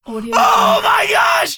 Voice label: man scream oh my gosh